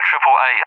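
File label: "AAA", English, UK; DCS; Allied Flight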